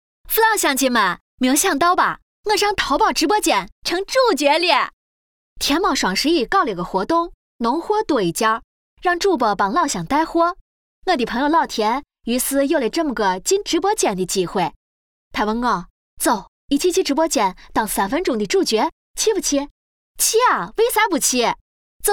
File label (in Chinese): 陕西话 可爱 活泼